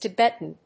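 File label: "Tibetan", English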